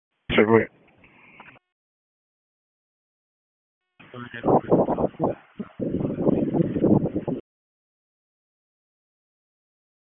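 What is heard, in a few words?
Dispatch 1, P25; WSO DISP 1; wake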